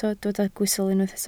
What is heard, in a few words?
"gwystl", Welsh